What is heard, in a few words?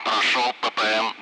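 Speech, Russian; Allied Flight; passing waypoint; DCS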